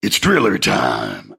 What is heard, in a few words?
Tower Tremor drill voice; 疯狂科学家